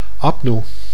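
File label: "apnoe", Dutch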